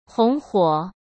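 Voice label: "红火", Mandarin Chinese; hsk; chinese-flashcards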